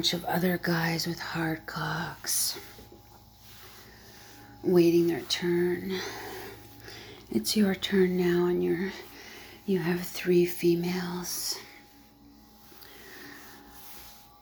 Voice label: Voice Male Audition; Porn